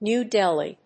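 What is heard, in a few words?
"New Delhi", English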